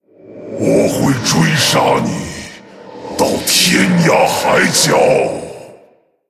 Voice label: Male Orc, I will hunt you down... Forever.; Threaten; ▶我会追杀你……到天涯海角！; zh; 威胁; 死亡猎手雷克萨; Rexxar Deathknight